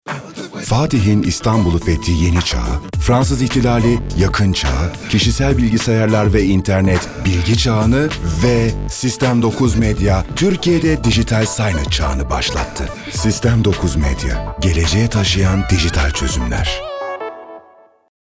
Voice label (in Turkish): enerjik tempolu